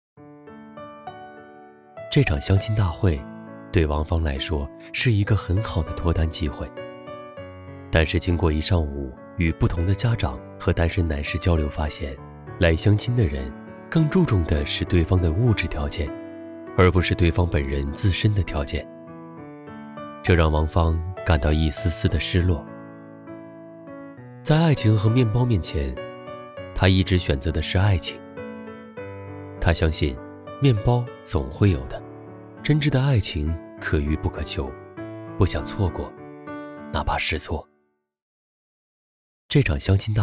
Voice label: 纪录片年轻轻松; 相亲

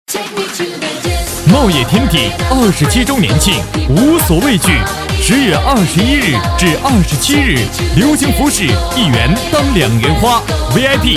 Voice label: 促销; a茂业

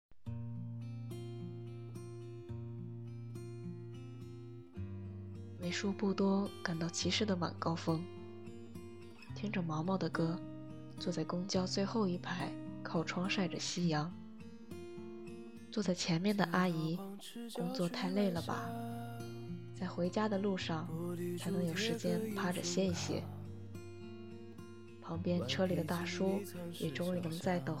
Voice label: 混音裁剪, 夜听治愈 情感生活, 女配音, 青年, 普通话

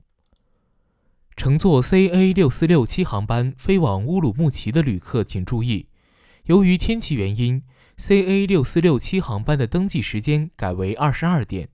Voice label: 广播通知平方男

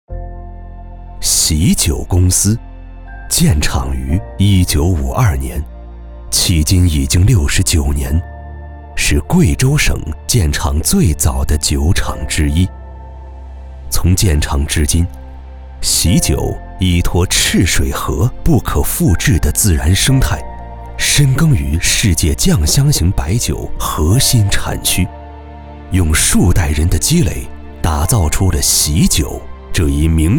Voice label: 高端白酒宣传片

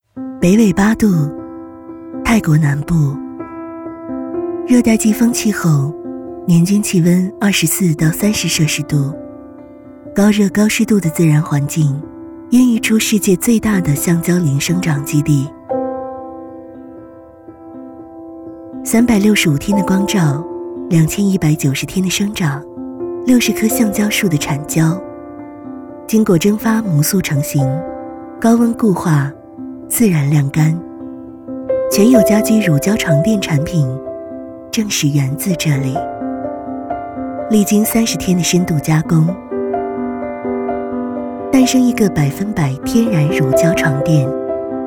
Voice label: 品牌广告 乳胶床垫; 品牌广告全友乳胶床垫

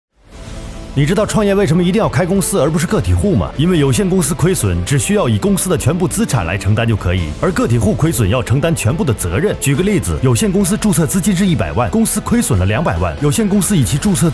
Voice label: 短视频普及知识类

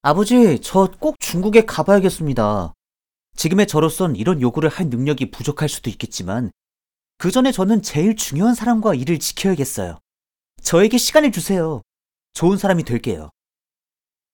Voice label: 韩籍韩语男游戏音

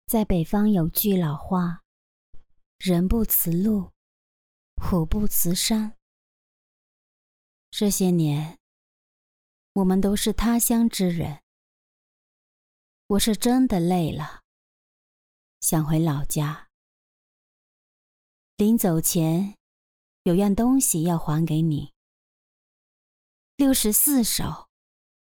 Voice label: 电影角色配音

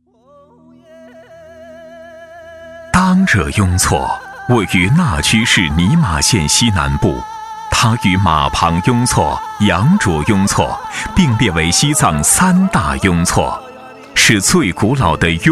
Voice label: 人文纪录; 当惹雍措

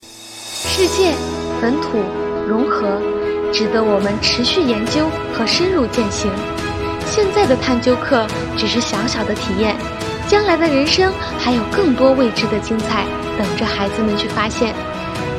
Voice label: 视频 旁白

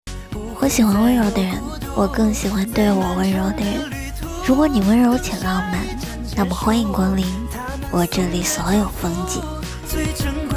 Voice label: 温柔

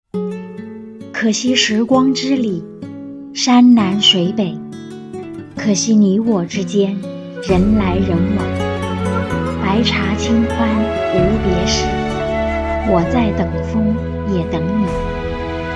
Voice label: 古风 伤感 独白

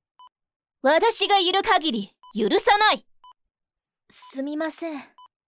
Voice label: 条漫国风少女; 外语配音

